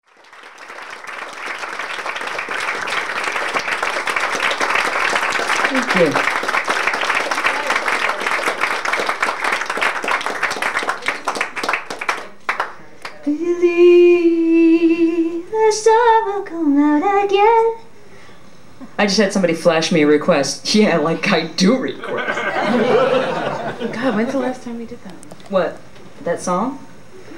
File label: Live Patter, Tin Angel